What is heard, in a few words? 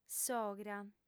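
"sogra", lingua sarda, female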